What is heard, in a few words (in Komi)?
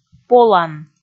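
"полан", Komi, female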